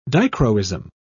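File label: "dichroism", British English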